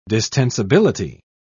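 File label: "distensibility", British English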